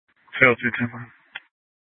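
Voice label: Scottsdale Police Department South Dispatch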